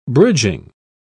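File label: "bridging", English, British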